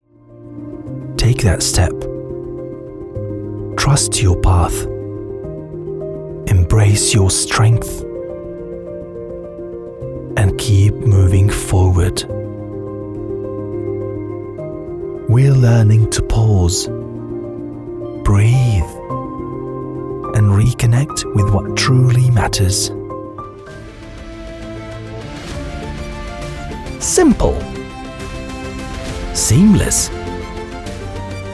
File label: English Middle East M Narration; accent of the arab league